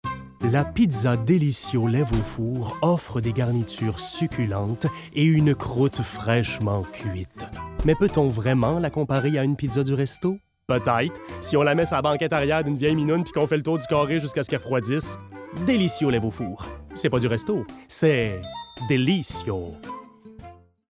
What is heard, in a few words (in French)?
Publicité; Delissio